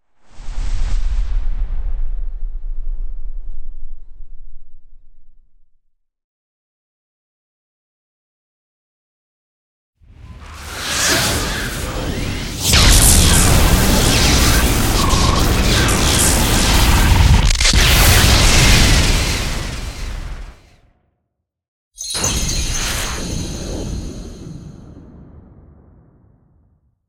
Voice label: cinematic voice; lineage2